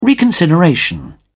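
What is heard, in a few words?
"reconsideration", British English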